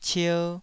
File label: "cier2", Taiwanese